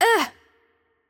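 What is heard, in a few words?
Hungarian hurt light voice; bloom minigame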